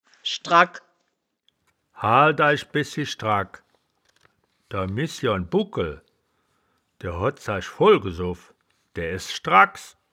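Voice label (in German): "schdraggk", Wittlicher Wörterbuch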